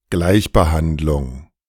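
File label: "Gleichbehandlung", German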